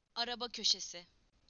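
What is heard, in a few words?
"car corner", Turkish; PictureDictionary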